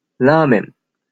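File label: "ramen", Japanese pronunciation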